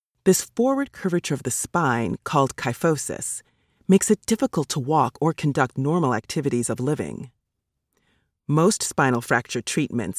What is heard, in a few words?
Medical Narration